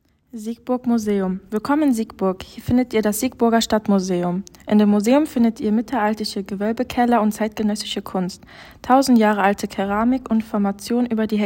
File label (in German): Deutsch; Siegburg Museum